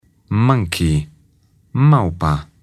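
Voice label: "monkey", English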